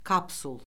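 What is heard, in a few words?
"kapsul", Azerbaijani; Orfoqrafiya Lüğəti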